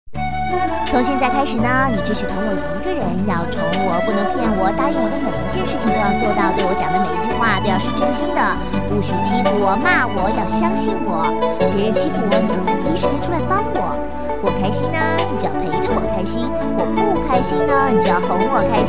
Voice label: 动画 模仿; 模仿张柏芝

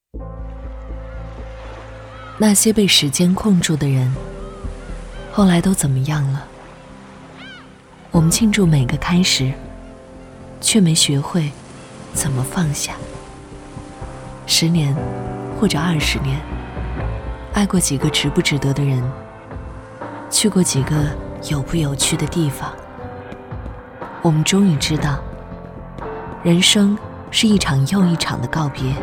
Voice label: 广告 片头片花 娱乐频道 知性; 华沙娱乐频道

